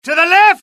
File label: alert, UK; mohaa; dialogue